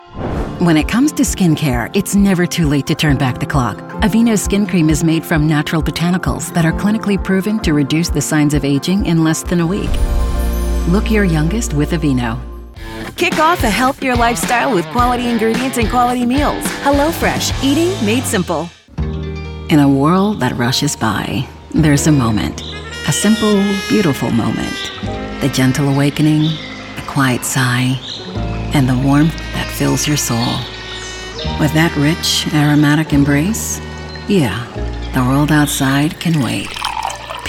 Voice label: commerical

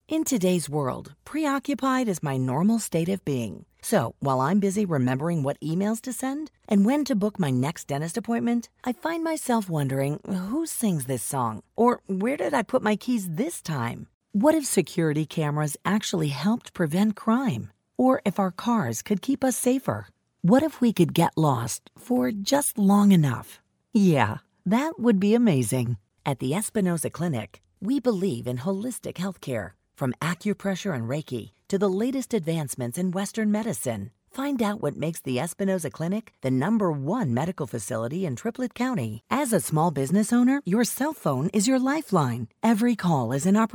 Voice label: CONVERSATIONAL

